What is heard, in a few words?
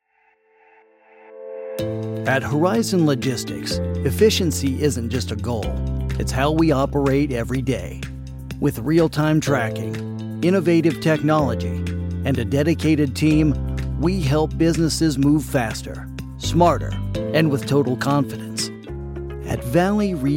Narration